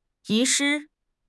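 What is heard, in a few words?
"遗失", Mandarin Chinese; HSK6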